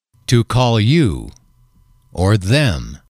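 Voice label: "to call you them", English, male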